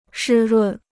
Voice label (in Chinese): "湿润", Chinese